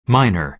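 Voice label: "miner", American English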